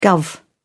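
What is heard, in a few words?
"guv", British English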